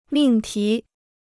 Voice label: "命题", Chinese

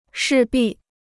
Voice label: "势必", Chinese

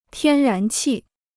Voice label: "天然气", Chinese

